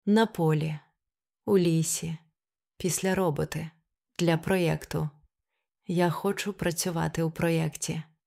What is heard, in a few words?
High Frequency Phrases, Ukrainian; pronunciation